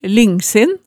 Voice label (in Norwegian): "lingsen", hemsedalsmaalet tale